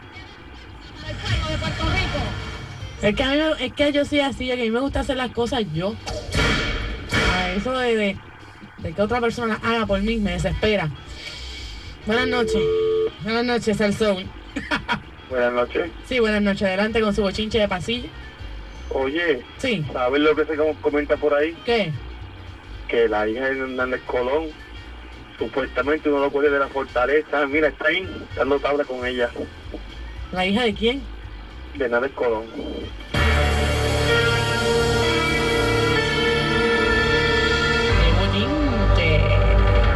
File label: talk chaud radio